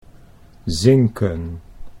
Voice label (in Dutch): "zinken", Dutch